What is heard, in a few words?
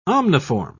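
"omniform", English, British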